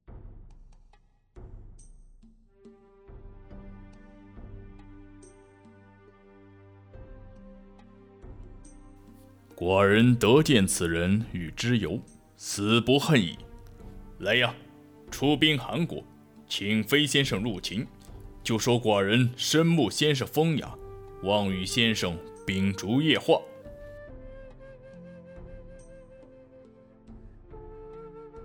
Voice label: 广播剧

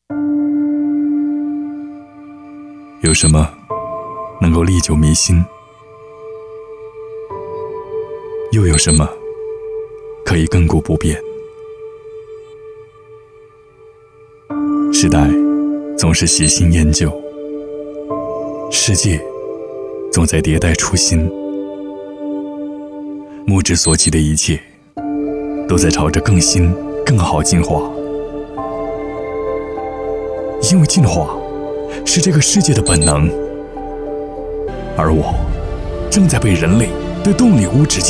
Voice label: 汽车走心广告; 汽车走心广告轩逸进化

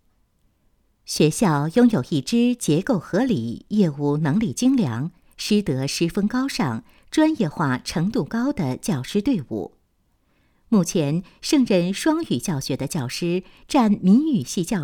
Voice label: 学校宣传片

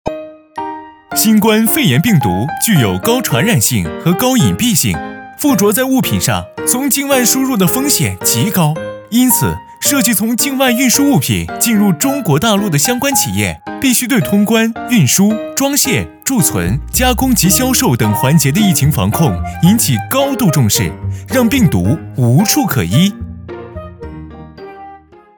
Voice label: 动画年轻活力样音

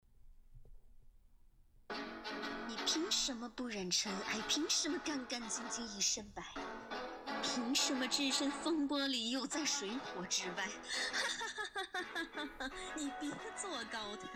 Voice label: 配音

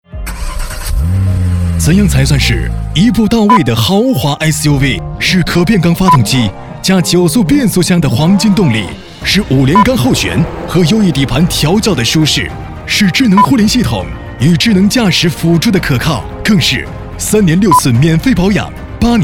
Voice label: 汽车广告; 汽车广告别克

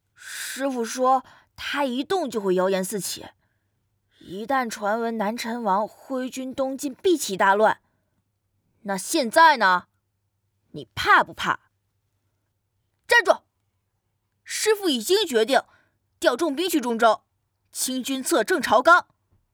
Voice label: 男孩

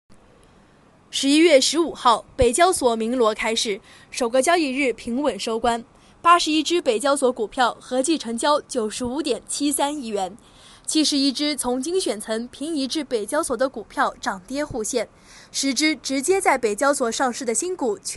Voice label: 新闻播音股票投资公司宣传

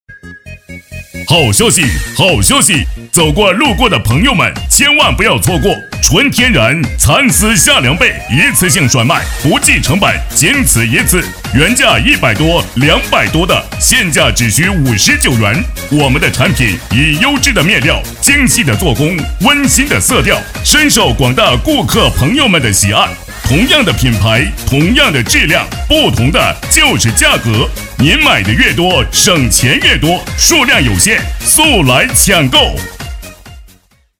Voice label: 家纺床品广告配音

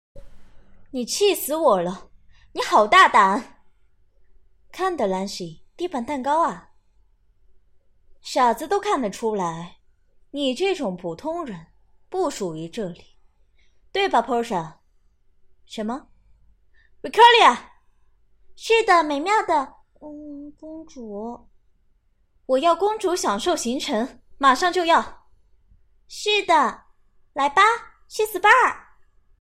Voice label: 动漫部分角色配音; 芭比公主

